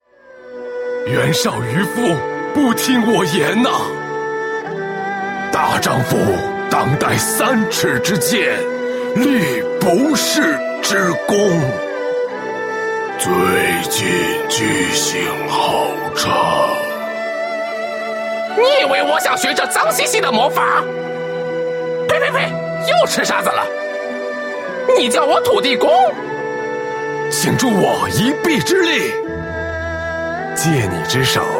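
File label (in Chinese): male, zhonglaonian (middle-aged and elderly)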